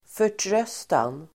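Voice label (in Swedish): "förtröstan", Swedish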